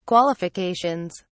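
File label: "qualifications", English, British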